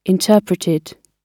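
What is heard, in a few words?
"interpreted", British English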